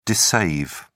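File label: "dissave", British English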